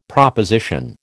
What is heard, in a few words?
"proposition", American